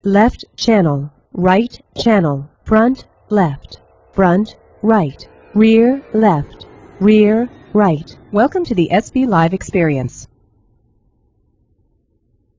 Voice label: female plane noise